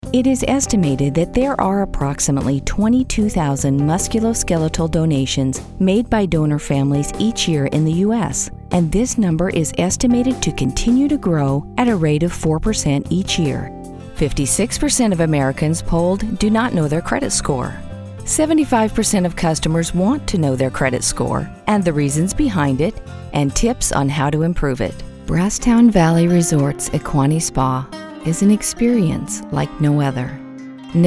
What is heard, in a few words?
Corporate Industrial